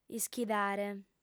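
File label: "ischidare", female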